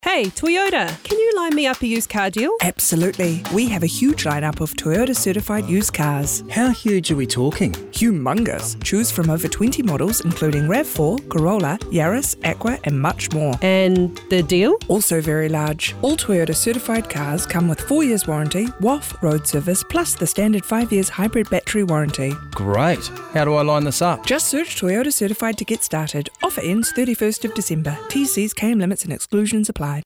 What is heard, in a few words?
Voice, en-US; Big Mouth Voices